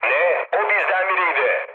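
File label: Turkish player killed ally, mood low, speech; War Thunder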